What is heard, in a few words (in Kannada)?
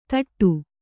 "ಥಟ್ಟು", Kannada